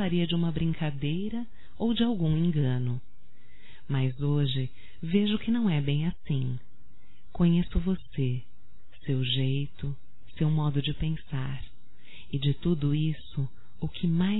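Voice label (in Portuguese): voz Namoro por Telefone feminino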